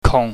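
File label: "kung", Cantonese, low falling tone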